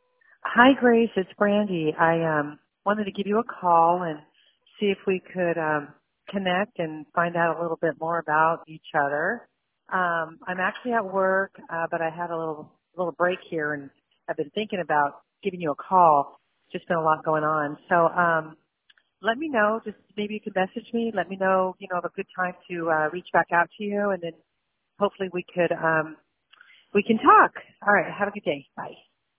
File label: voicemail